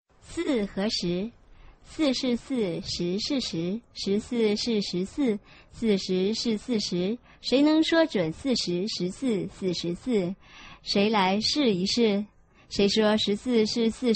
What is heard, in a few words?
chinese tongue twister